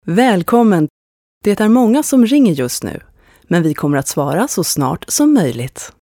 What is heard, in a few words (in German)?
schwedisch, Telefonansagen, weiblich; Sprecherin